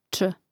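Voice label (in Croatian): "č", Hrvatski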